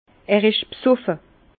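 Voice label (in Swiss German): "besoffe", Alsace Bas Rhin; vin; il est ivre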